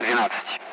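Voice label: Speech, Russian, Numbers, 12; Allied Flight; DCS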